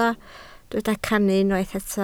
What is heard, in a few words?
"cannu", Welsh